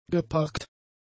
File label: "geparkt", German; adjectives